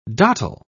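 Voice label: "dottel", British English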